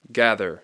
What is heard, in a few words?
"gather", English